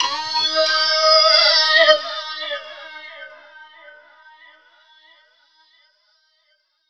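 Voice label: effects talk, Funky Vocals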